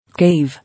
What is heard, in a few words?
"Cave", English